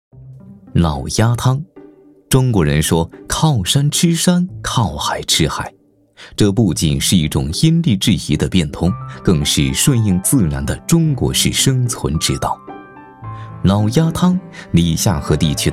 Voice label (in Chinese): 男国语, 舌尖体; 老鸭汤